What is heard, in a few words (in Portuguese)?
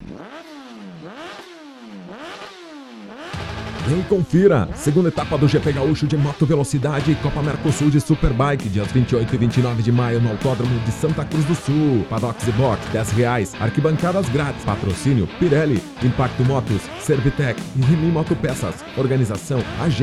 comercial